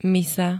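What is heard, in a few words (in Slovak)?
"misa", Slovak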